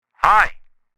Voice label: Walkie talkie conversation "hi"

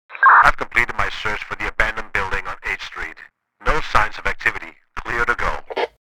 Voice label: Police radio chatter clear to go

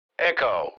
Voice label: Military radio voice; unit echo

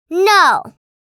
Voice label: "No" vocalization girl child kid

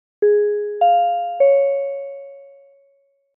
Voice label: Airport PA announcement starting

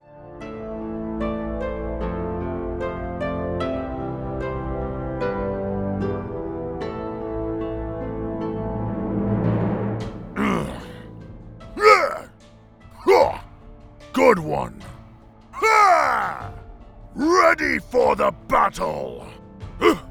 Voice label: Orc Warrior pack

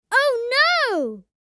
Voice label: Oh no boy child kid

